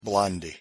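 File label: "blondie", English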